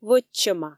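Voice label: "вӧччӧма", Komi, female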